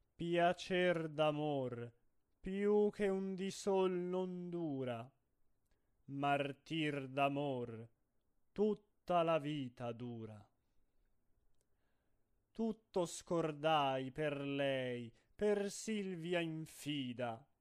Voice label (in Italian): espressivo